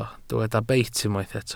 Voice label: "beit", Welsh